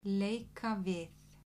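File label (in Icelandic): "leika við", Icelandic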